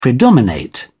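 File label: "predominate", English, British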